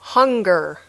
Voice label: "hunger", English